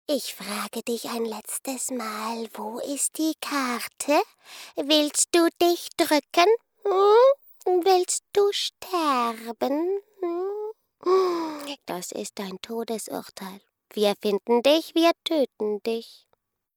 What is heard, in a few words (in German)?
Kleiner Ork Game Hoerspiel